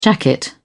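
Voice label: "jacket", British English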